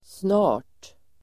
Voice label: "snart", Swedish